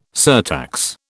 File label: "surtax", British